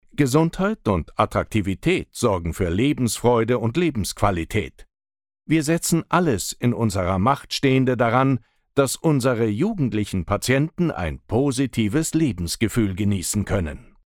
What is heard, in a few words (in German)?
jugendliche